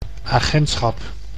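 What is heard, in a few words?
"agentschap", Dutch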